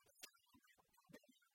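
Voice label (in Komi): кыдзи шуӧны батьтӧ да мамтӧ, Komi, female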